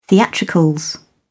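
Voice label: "theatricals", British English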